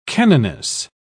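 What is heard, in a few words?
"canoness", British English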